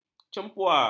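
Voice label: "cempua", Malay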